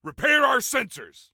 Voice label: Repair Sensors, Soldier Male; Soldier Male 03

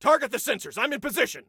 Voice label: Target Sensors, Soldier Male; Soldier Male 02

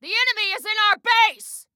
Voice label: Enemy In Base, Female

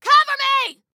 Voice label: Defend Me, Female

